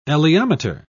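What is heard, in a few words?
"elaeometer", British English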